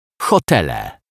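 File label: "hotele", Polish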